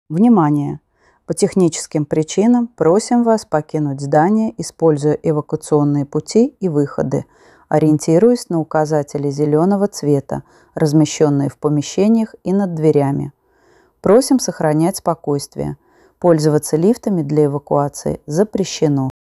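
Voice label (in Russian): оповещение эвакуация технические причины; rechevye soobshcheniya soue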